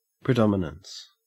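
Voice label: "predominance", Australian English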